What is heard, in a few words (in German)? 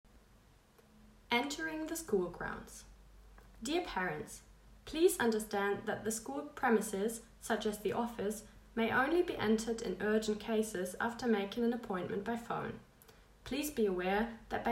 Englisch